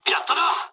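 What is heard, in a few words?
Japanese player killed enemy mood low; War Thunder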